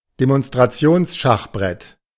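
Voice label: "Demonstrationsschachbrett", German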